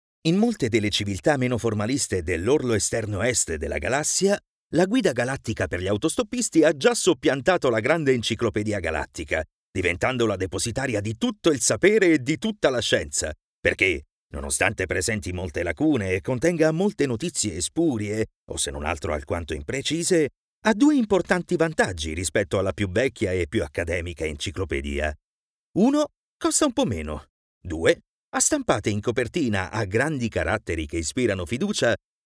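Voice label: Italian male Audiobook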